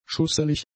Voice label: "schusselig", German; adjectives